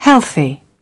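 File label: "healthy", British English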